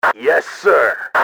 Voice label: "yes sir", radio, male; XBOX

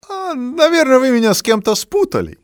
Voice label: confused, male; XBOX; civ

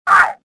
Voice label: pain; XBOX; worker

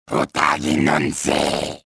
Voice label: victory, trandoshan; XBOX

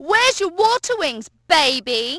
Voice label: wings, Brit; Splashdown; XBOX

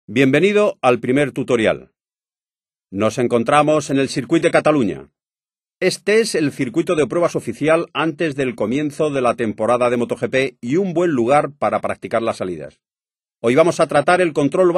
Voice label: throttle spanish, speech; MotoGP 3

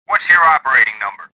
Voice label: suspicious

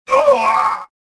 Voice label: choke; XBOX; weequay

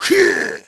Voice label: EFFORT; NIXON; Pulse Racer

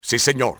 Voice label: yes sir, spanish soldier; enemy; XBOX

